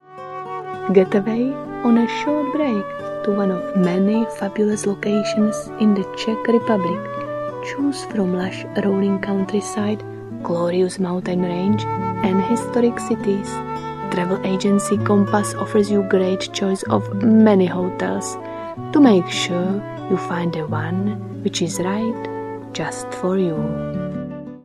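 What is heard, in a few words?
Czech male English; Czech, Eastern European, Male, Home Studio, 30s-40s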